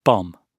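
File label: "bomb", British English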